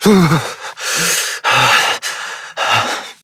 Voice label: Operator Voice